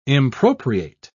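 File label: "impropriate", British English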